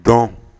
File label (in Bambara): "dɔn", Bambara